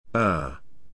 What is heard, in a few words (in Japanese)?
イギリス英語ə